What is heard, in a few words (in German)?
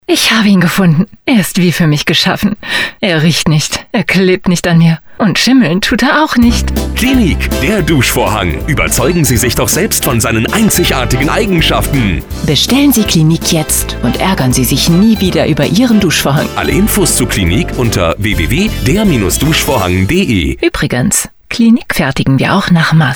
Radiospot; Cleanique Duschvorhang NEU